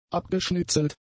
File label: "abgeschnitzelt", German; adjectives